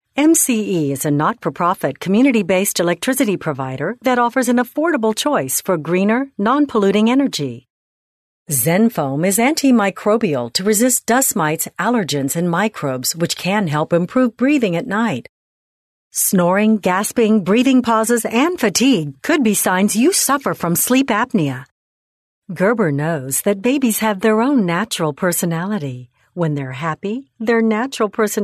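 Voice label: US English, female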